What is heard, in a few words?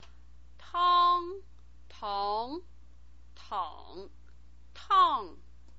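"tang", Mandarin Chinese pinyin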